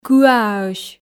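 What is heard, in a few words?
"кыааӧсь", Komi, female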